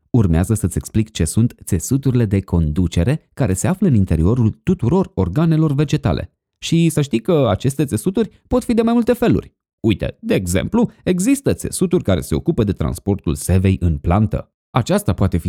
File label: romanian e-learning